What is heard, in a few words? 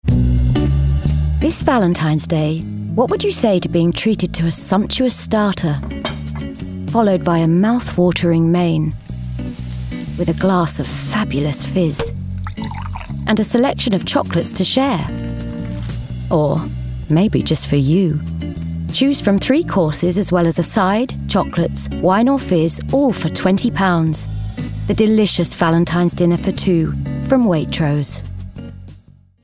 Commercial; Waitrose